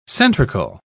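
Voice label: "centrical", British English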